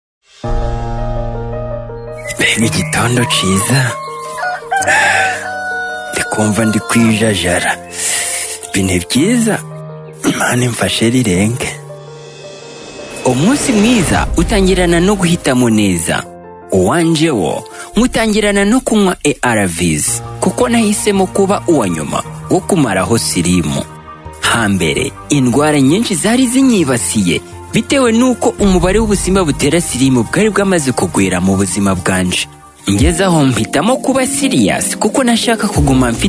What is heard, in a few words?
HIV Spot Rufumbira; Viral Load Suppression; radio spot